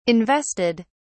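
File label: "invested", British English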